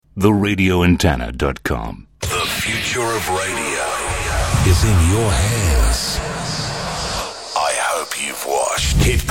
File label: Aircheck Promo Classic Rock FM